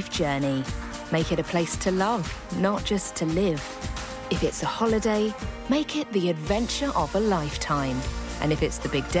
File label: female english personal finance commercial; hitachi; Devine Voices